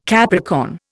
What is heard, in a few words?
"Capricorn", Indian